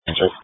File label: Police Dispatch